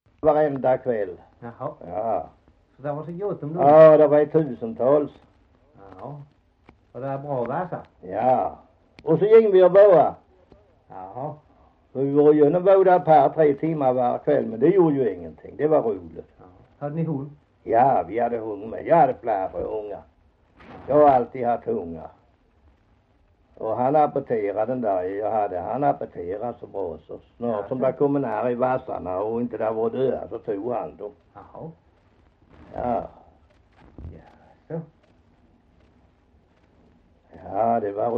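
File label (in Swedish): Hasslöv Halland, Svenska sagor dialekter; Folkminnen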